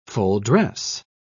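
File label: "full-dress", British English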